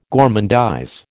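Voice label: "gormandize", American English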